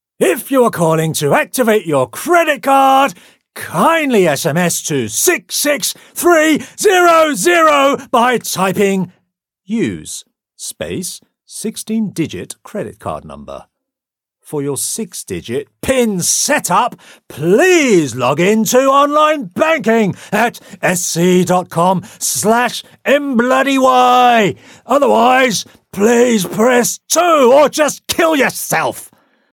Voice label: UK English Parody, Male; John Cleese

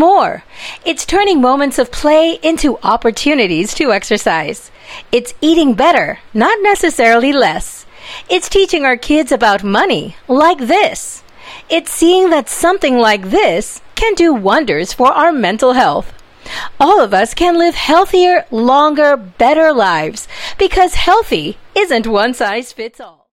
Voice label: Asian English Clean Read; Clean Demo Read

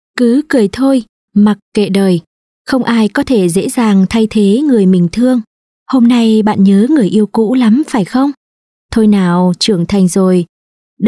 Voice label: North Female, North Vietnamese; Mh Hanoi

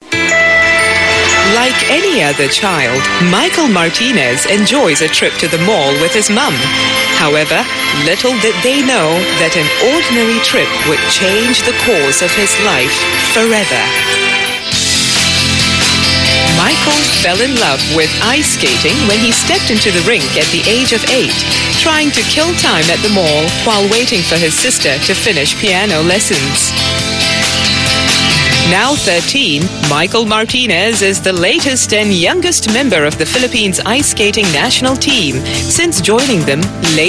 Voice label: Documentary, English Singaporean Female; CNA

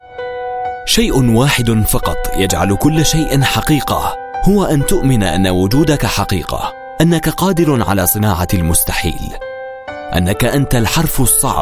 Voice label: Arabic; Who is Green Valley

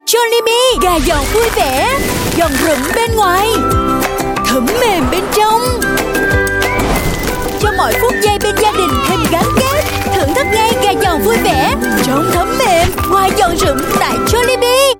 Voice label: Vietnamese Female

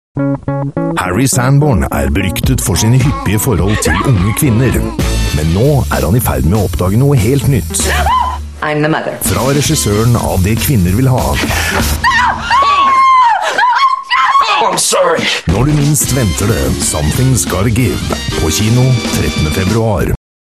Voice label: Norwegian Movie Trailer, Male

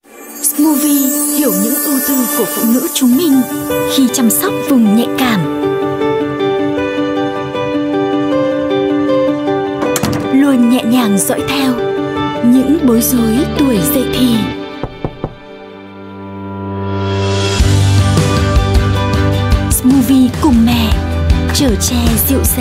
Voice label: North TVC Female, VIETNAMESE NORTH FEMALE VOICES

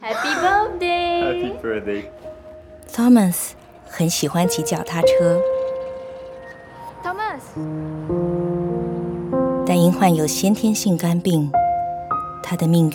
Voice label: Mandarin Campaign, Mandarin China Female; MOH Live On

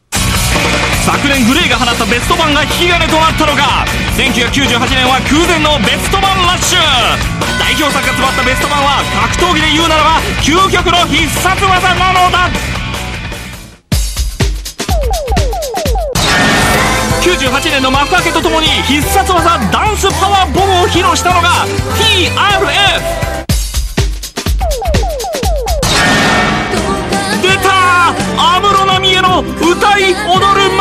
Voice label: Music Awards Wrestling Japanese

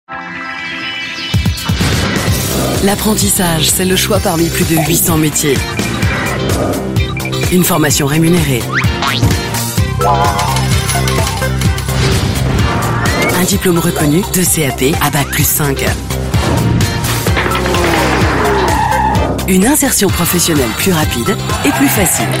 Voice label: French Female Ile De France

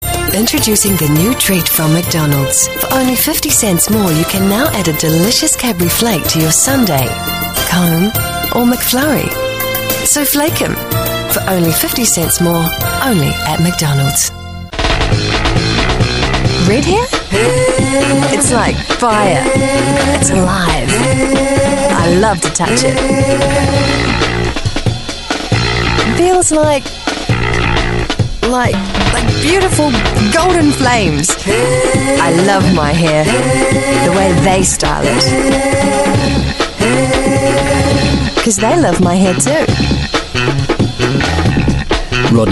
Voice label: NZ English

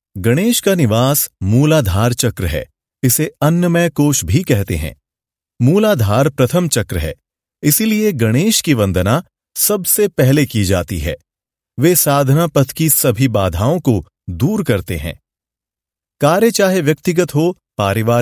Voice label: Hindi Narration

